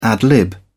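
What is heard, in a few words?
"ad-lib", English, British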